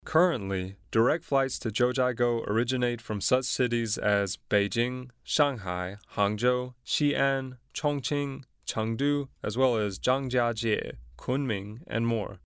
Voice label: me boy, english